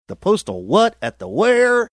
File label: saying postal what at the where funny